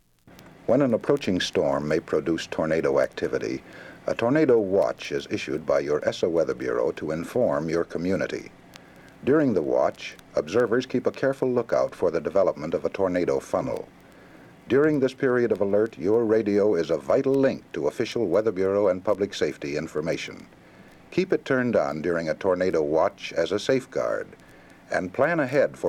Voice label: tornado announcement safety; ESSA